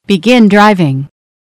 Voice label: Start Drive, eng; waze